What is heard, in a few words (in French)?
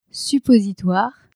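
"suppositoire" French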